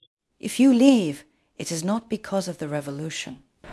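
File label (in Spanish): Voice Acting, Spanish, female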